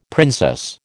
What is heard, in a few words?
"princess", American